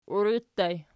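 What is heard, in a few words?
"uiri" ergative plural, Archi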